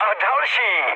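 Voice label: player killed enemy, Czech, mood low; War Thunder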